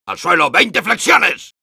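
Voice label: jeers, Spanish; Soldier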